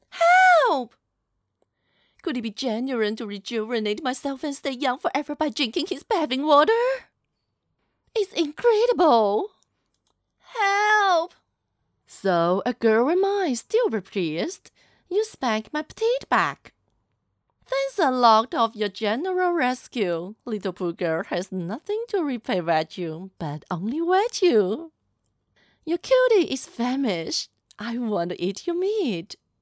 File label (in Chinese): 女角色音英语